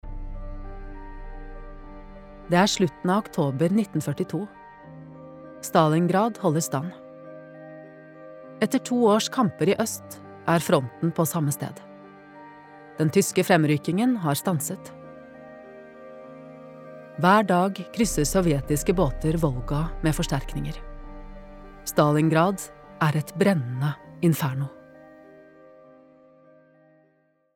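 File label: documentary, norwegian, female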